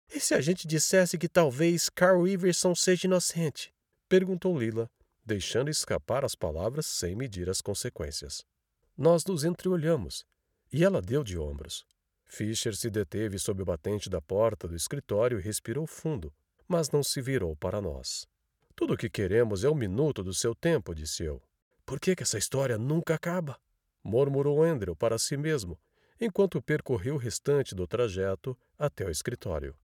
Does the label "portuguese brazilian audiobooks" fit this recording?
Yes